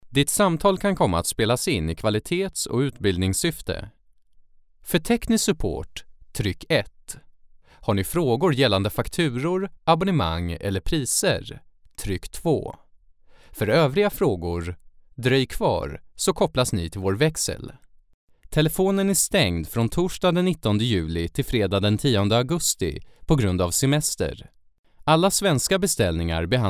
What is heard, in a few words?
swedish ivr